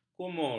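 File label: "kumur", Malay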